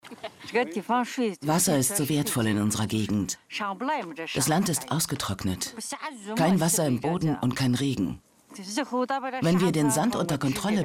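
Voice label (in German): Sprachprobe Reportage, Sprecherin; HUNGER von Claus Kleber und Angela Andersen; ZDF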